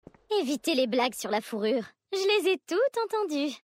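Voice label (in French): French Female Flirt; Vulpérins; Fourrure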